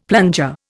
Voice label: "plunger", Indian; Dictionary

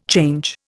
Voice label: "change", Indian

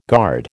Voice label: "guard", American

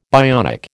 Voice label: "bionic", American

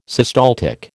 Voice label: "systaltic", American

